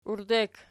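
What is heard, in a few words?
"uirdek" nominative, Archi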